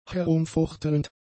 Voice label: "herumfuchtelnd", German; adjectives